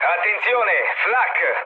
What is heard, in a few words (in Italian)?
damaged aa, mood low, speech it; War Thunder